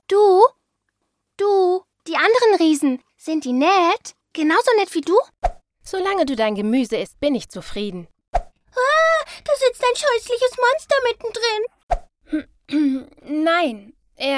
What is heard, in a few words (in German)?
Hoerspielmix